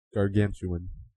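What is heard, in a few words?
"gargantuan", American English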